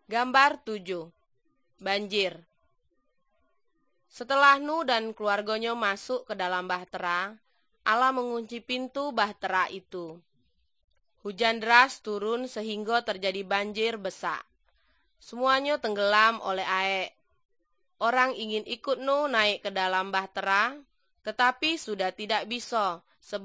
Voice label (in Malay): good news malay jambi